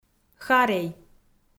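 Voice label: "харей", Komi, female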